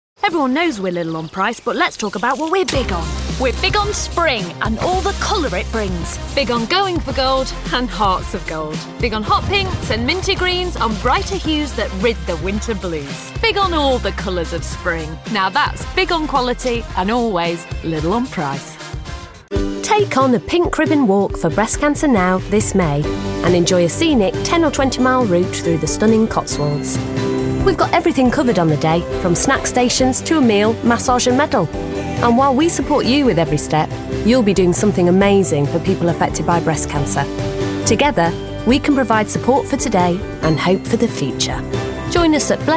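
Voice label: Commercial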